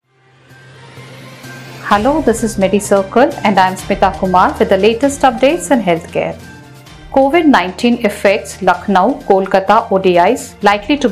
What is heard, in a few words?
english news